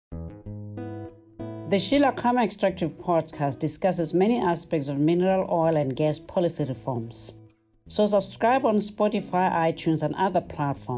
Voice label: Advert